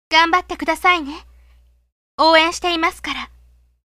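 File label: female; 女の子; 励まし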